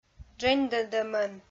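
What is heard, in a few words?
"дженьдӧдӧмӧн", Komi, female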